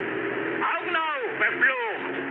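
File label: German player friendly fire mood low, speech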